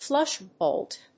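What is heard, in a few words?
"flush bolt", English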